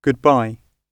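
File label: "goodbye", British English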